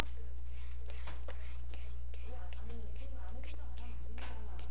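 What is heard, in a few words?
"can", spoken word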